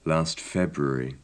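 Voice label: last february, British English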